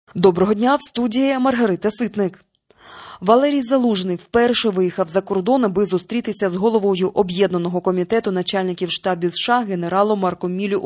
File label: Ukrainian Morning News